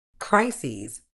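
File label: "crises", British English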